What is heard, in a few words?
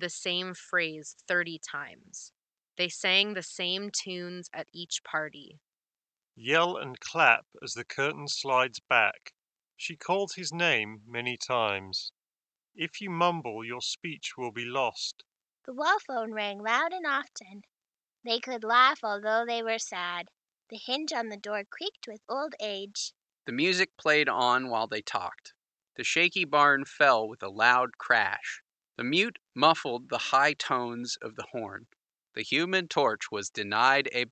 Ideal microphone sample; Hyper X Cloud Stinger